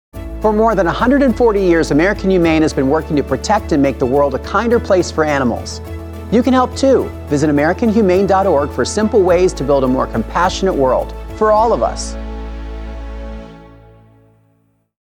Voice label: PSA radio